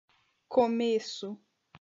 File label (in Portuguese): "começo", Portuguese